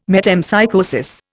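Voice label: "metempsychosis", English, Indian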